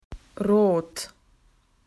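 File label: "red", Australian English; PictureDictionary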